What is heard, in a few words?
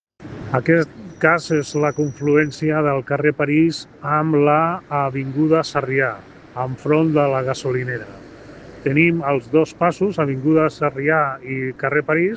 Voice message